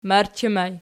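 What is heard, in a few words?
"marchi" ergative, Archi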